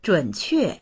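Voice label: "zhun3que4", Mandarin Chinese; 准确; 正確な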